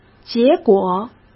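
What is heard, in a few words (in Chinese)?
"结果", Mandarin; chinese-flashcards; hsk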